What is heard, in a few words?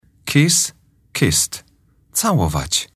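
"kiss", English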